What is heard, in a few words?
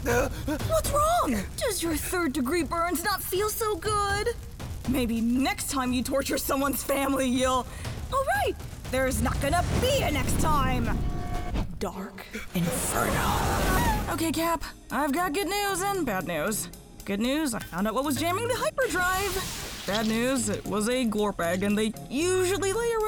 foreigner